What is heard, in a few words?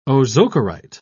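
"ozokerite", British English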